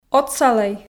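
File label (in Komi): "отсалӧй", Komi, female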